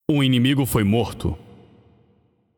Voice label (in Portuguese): Announcer PTBR Match Slain Enemy